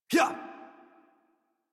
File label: Horse Sprint Adult; Warframe; Duviri